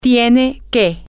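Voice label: you have to, spanish, female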